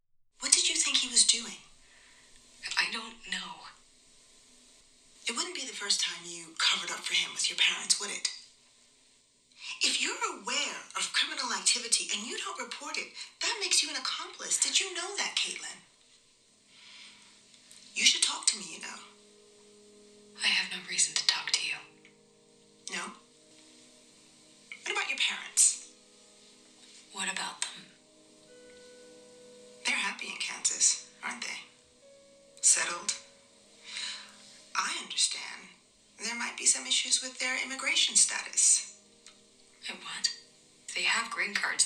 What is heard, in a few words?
Drama Podcast; Apple; Passenger List